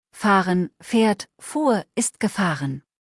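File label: "fahren", German; verben kurzliste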